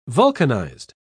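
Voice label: "vulcanised", British English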